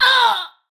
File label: Pain Ranged, Battle Barks; Tau; Tau Dax